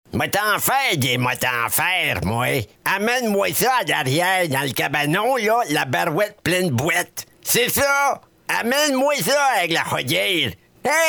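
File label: French Canada, male, voice over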